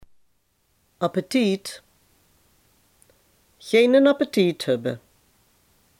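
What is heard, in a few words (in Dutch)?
"eetlust" Limburgish dialect word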